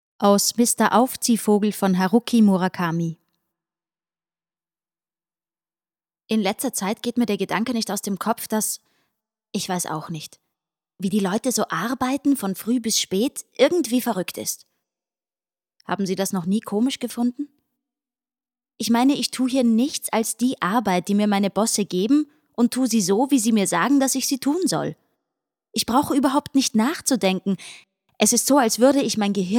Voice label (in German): hoerbuchauszug; aufziehvogel